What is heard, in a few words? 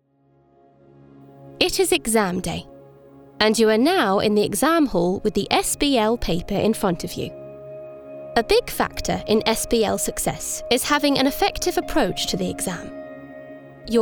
Explainer